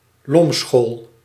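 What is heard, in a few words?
"lomschool", Dutch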